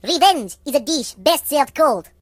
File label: "Revenge", voices Russian